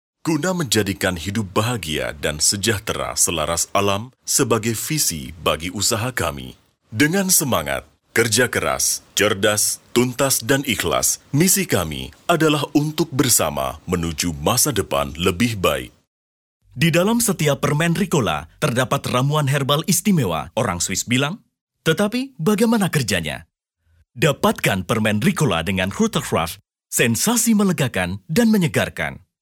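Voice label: Indonesian, male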